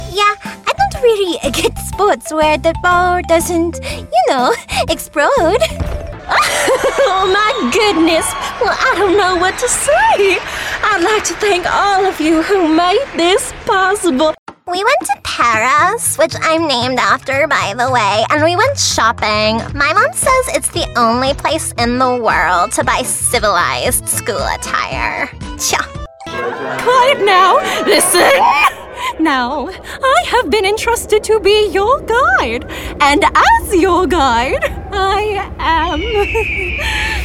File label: Dialect